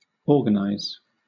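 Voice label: "organize", British English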